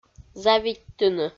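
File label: "завидьтыны", Komi, female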